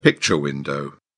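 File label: "picture window", British English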